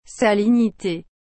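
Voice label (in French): "salinité", French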